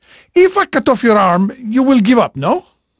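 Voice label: Portuguese male, voice; Pirates Of The Caribbean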